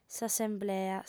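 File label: "s'assemblea", female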